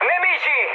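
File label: Italian enemy detected mood high, speech; War Thunder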